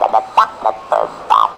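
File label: effects talk, Funky Vocals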